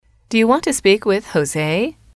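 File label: do You Want To Speak With X, english, female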